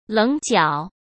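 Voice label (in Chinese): "棱角" Mandarin Chinese; hsk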